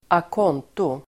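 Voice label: "a conto", Swedish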